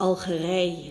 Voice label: algeria, nl; gcompris-data; geography